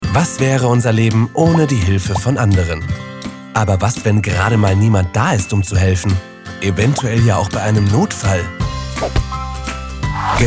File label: Explainer; Malteser; LOFT VOICES